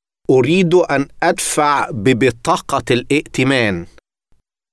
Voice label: credit card arabic